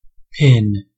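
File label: "pin", US English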